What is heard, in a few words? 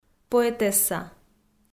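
"поэтесса", Komi, female; kpv audio dict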